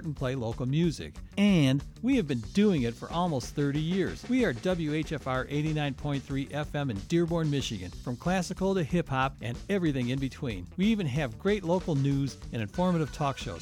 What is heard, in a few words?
Promo Only Station; WHFR